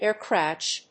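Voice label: "air crash", English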